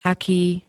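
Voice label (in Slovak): "aký", Slovak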